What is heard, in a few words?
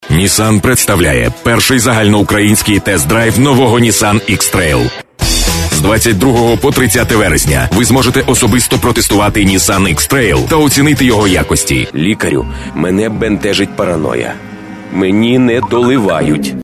locutor ucraniano ukrainian voiceover, Ukrainian male voice talent